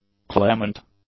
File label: "clement", English; adjectives